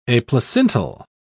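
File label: "aplacental", British English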